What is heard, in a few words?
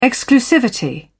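"exclusivity", British English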